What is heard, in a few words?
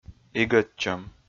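"игӧдчӧм", Komi, male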